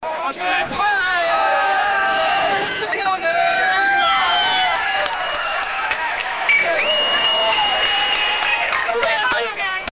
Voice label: news reporter

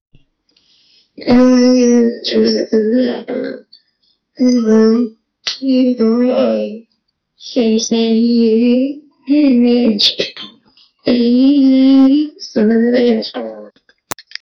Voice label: cheerful

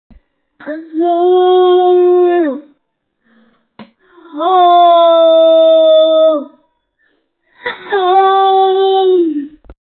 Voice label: a loud angry adult male, shout

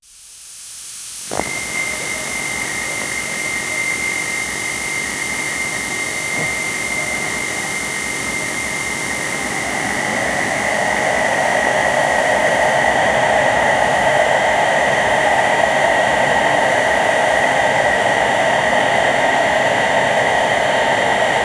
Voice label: japanese television live, broadcasting

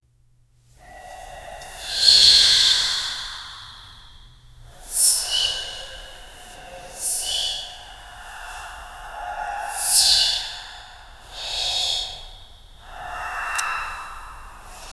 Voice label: an ominous ghostly whispe; paranormal

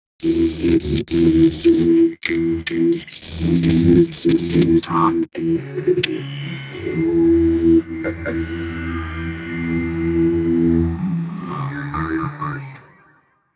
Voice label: a robotic voice with a, distorted

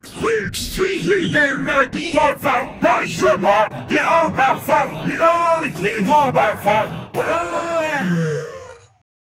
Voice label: angry pirates

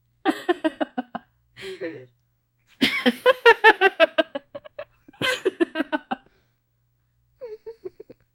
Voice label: woman and man romantic

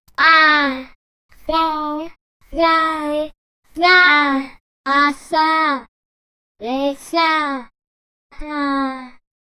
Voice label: japanese for language gir

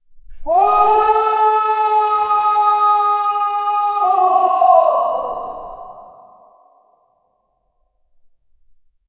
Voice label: a distant male voice echo

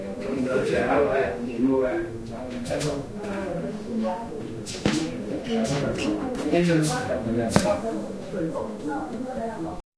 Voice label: people talking calmly a

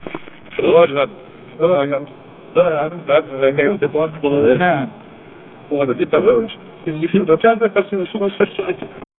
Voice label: a man speaking on muffled, television

